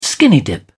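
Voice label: "skinny-dip", British English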